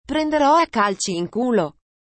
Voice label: kick some ass, italian female

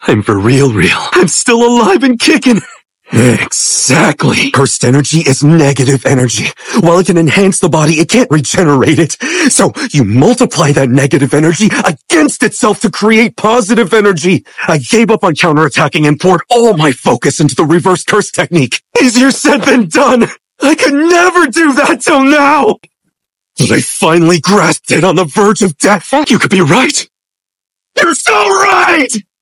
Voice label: yapping; gojo

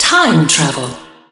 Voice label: time travel announcer; marble blast ultra

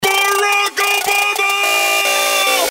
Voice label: rap battle announcer; barack obama

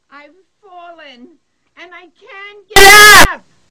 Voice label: bass boosted; ive fallen and i cant get up

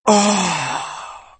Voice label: voice damage m

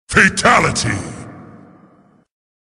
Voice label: fatality announcer; mortal kombat 9

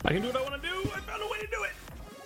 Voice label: excited

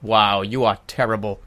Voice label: jeers; scout

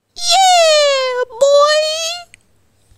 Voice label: yeah boi acapella

